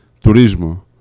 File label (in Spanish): turismo, fricatives; laboratori fonetica